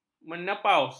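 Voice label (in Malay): "menopaus", Malay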